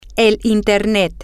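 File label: internet, Spanish, female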